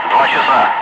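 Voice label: Russian speech, Ka-50 Wingman; DCS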